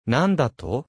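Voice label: anime, Japanese, Hilarious Anime Quotes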